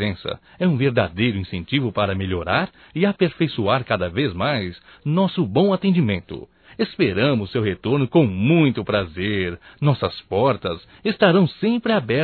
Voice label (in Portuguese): CLIENTE ADVOGADA, masc; Profissionais; Telemensagens 2017